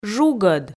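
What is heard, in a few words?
"жугӧд", Komi, female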